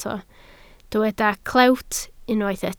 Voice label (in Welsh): "clewt", Welsh